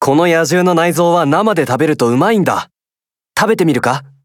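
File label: victory, Japanese; sdorica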